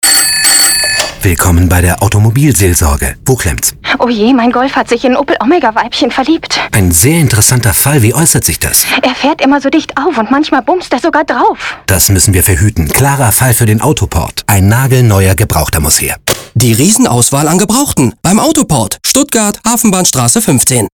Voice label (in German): Dialogrolle Funkspot; Autoport